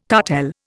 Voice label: "cartel", Indian